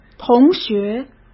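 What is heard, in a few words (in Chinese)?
"同学", Mandarin Chinese; chinese flashcards; hsk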